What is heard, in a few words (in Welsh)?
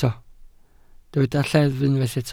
"lleddf", Welsh